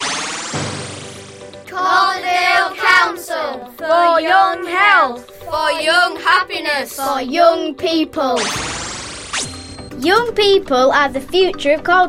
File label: Radio Advert; St Marys